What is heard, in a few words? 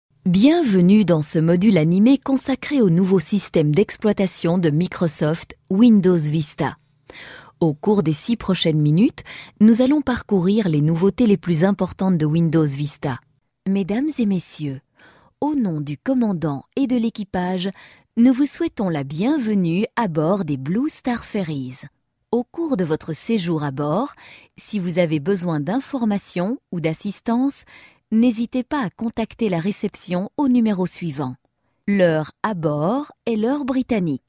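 French, female, corporate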